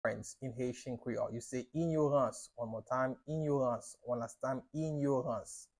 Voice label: How to say Ignorance in Haitian Creole Inyorans pronunciation by a native Haitian teacher